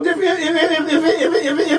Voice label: ififififif stutter

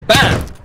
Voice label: spoken bomb

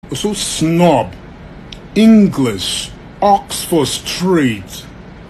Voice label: snob english; ed motta; oxford street

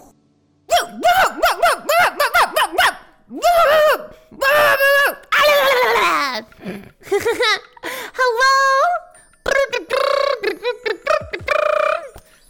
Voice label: bark hello; tutturu

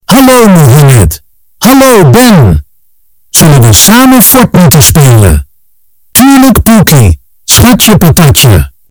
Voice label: bass boosted

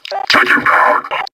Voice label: take him down, metro cop; hl2

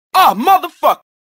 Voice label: falling ah; cj

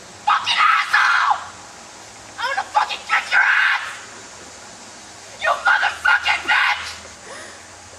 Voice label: paintball kid

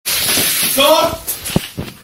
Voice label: angry polish kid

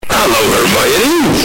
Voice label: hello bass boosted; hermione

